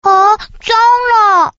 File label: quick chat voice, girl